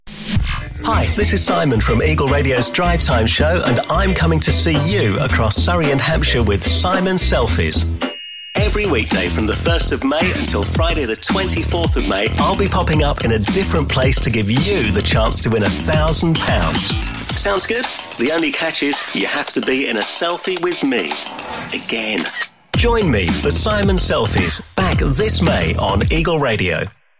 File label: english british promos